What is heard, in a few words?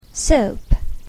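"soap", English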